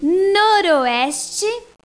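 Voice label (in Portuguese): "noroeste", Portuguese; GEOGRAFIA; bussola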